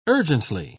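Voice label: "urgently", British English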